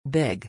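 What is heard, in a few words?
"big", English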